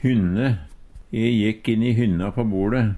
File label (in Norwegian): hynne, Numedalsmål